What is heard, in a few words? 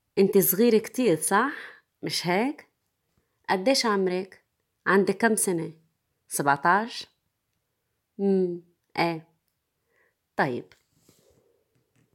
Voice, arabic